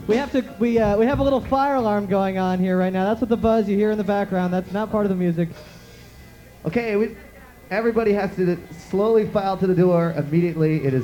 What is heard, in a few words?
Fire Alarm Speech